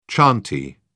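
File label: "chantey", British English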